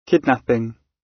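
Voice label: "kidnapping", British English; Crime and punishment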